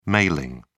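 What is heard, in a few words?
"mailing", British English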